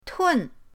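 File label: "tun4", Mandarin Chinese, pinyin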